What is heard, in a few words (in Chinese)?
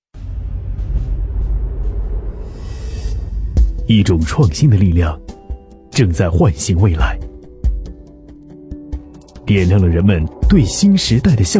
男 高端广告; BMW7系列